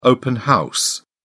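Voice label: "open house", British English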